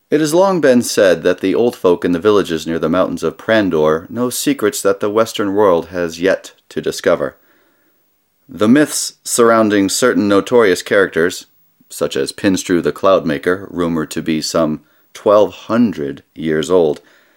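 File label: male English documentary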